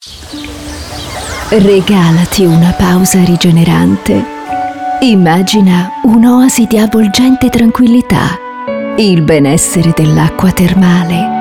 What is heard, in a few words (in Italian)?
Spot istituzionale IT SAUNA